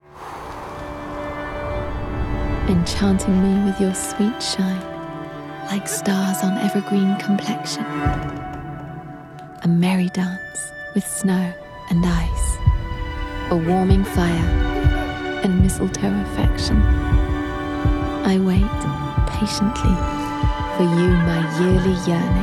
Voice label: gentle dreamy

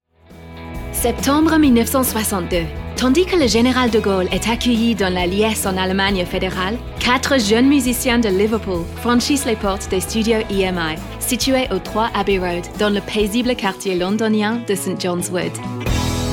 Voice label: voiceover en francais

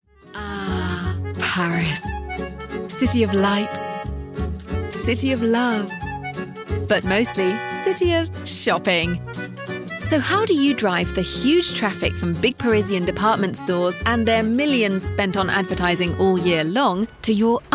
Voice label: voiceover

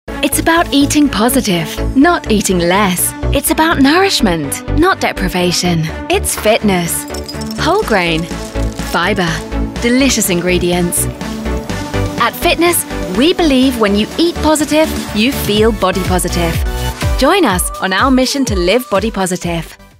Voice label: fitness energising dynamic

